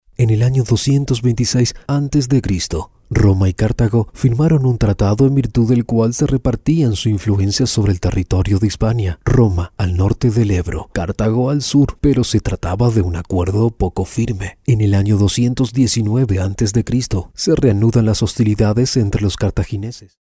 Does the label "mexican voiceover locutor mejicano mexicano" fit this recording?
Yes